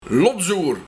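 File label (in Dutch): "Lotsoer", Luik, Onnozel infantiel iemand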